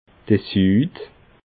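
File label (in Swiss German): "sud", Alsatian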